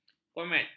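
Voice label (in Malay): "komet", Malay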